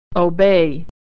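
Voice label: "obey", American English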